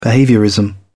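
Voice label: "behaviourism", en, uk British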